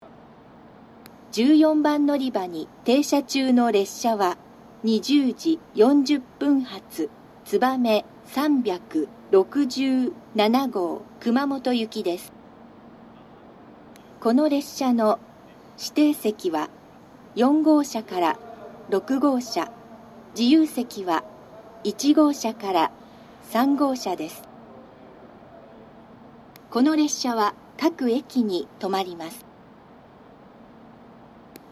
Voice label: 新鳥栖駅 つばめ367号 熊本行き, 九州新幹線 SIRIUS型放送; 九州新幹線SIRIUS（シリウス）放送

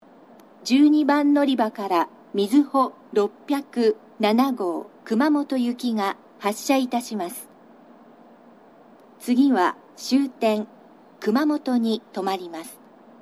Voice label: 12番のりば発車放送（みずほ607号 熊本行き）, 久留米（新幹線ホーム）

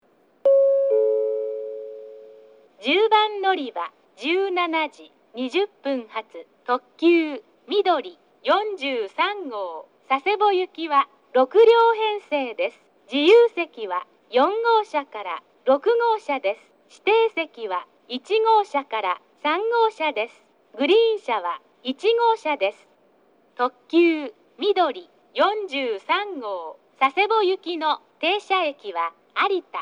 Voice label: 10番のりば案内放送; takeo onsen; みどり43号 佐世保行き

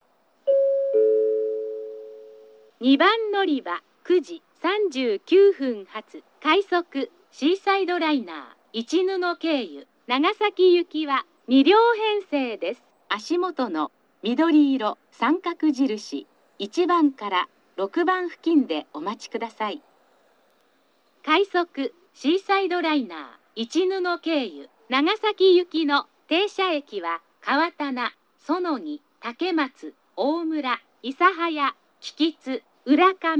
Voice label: 2番のりば案内放送（快速シーサイドライナー 長崎行き）; ハウステンボス; 乗車口 緑色△印1〜6

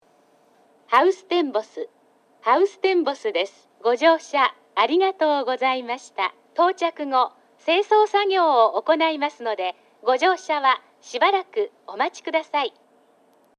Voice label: 到着放送+清掃放送; ハウステンボス

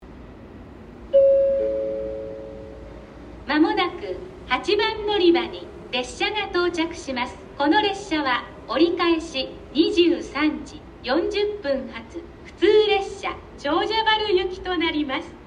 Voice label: 博多8番 予告放送（普通 長者原行き）※折り返し

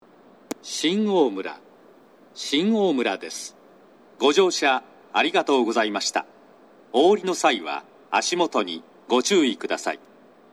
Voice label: 到着放送 男声; shin omura

